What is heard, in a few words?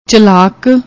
"challak", Punjabi; ਚਲਾਕ